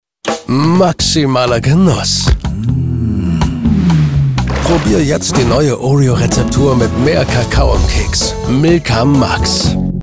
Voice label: Schokolade, werbung

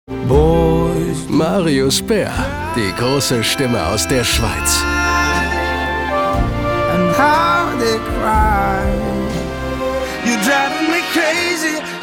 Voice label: Album-Ankündigung, werbung

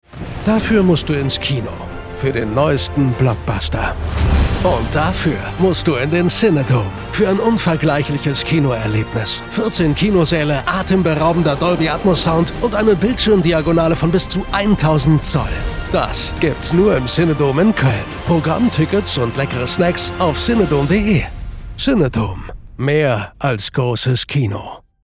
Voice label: Kino-Werbung

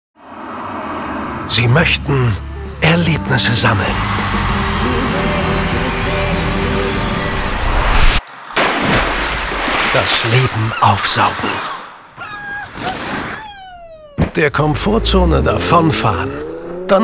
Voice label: 3D-Audiospot, werbung; Porsche Macan

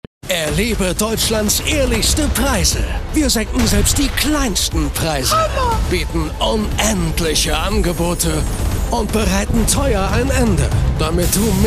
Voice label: Werbung Supermarkt reißerisch

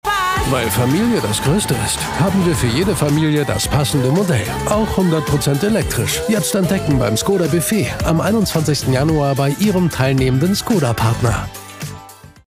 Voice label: Auto Werbung

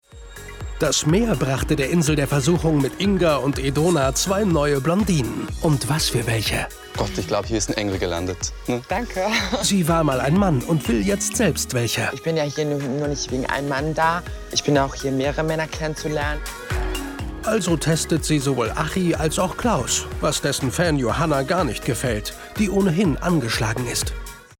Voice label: Doku, offstimme; Che Nackt auf der Insel

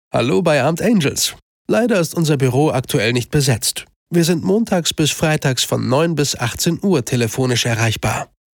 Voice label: Ausserhalb Geschaeftszeiten AB, offstimme

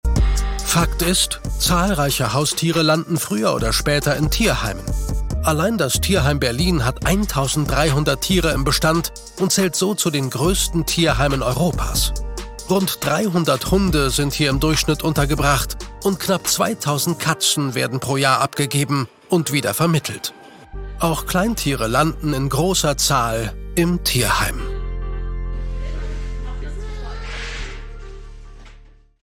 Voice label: Doku Tierheim, offstimme

